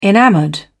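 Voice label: "enamoured", British English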